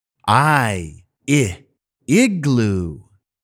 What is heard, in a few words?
I Igloo, english pronunciation; Island; phonics